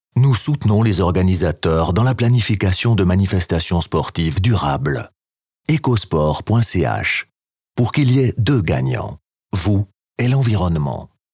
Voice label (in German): Werbung FR CH